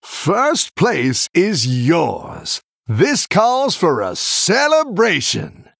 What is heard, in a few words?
Male Human First Place